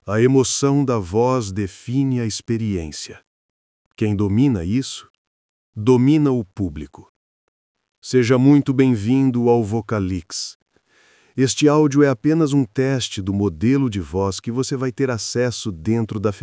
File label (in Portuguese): melancolico; Narração